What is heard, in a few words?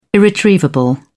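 "irretrievable", British English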